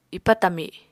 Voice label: "ipa tami", Apatani